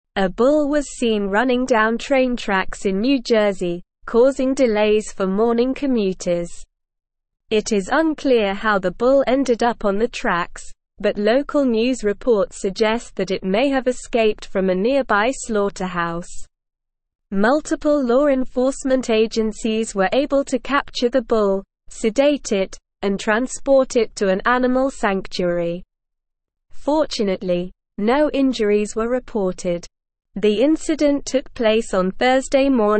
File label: English Newsroom Advanced SLOW Reading; Bull on New Jersey train tracks causes delays